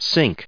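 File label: "sink", US English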